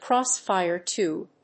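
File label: "cross-fire tube", English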